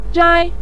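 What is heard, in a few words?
"zhai" neutral tone, Mandarin Chinese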